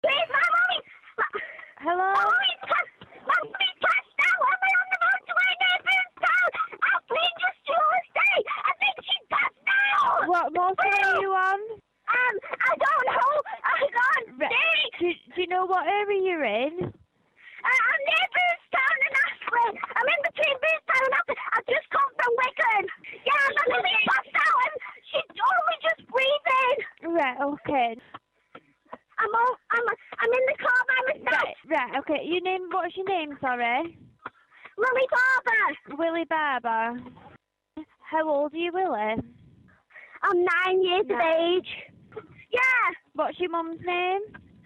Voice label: Brave 999 call of two young sisters, Listen to the 999 call which helped save mum's lif